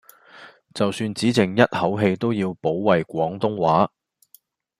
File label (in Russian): Голоса, Гонконгский